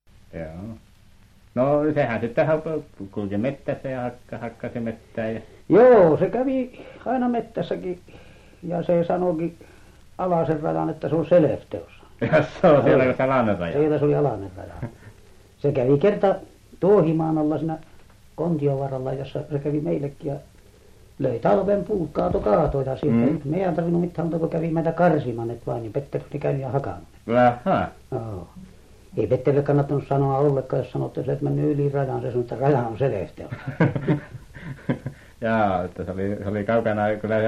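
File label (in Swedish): Övertorneå Norrbotten, dialekter, svenska sagor; Folkminnen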